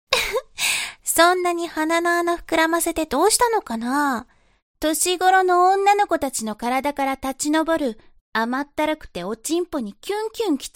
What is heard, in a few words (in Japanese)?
懲罰中音声, ASMR